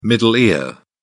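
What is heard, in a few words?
"middle ear", British English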